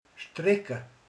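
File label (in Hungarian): "köt", Hungarian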